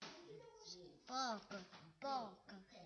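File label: "bog", spoken word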